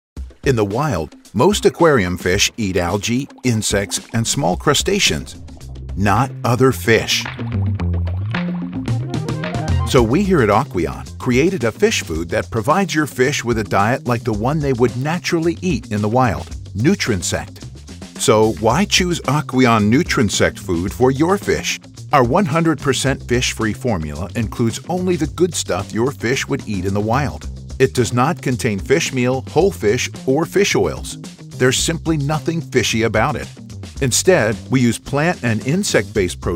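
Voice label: English US, TV Werbespots Online Werbespots Breites Publikum; NATIVE PROFISPRECHER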